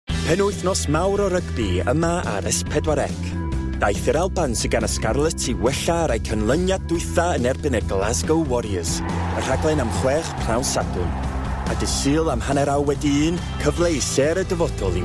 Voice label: welsh commercial